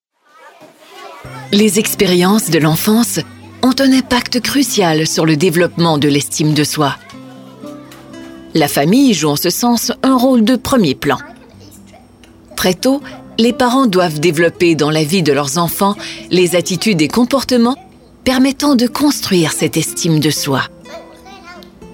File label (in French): NARRATIONS audiolivres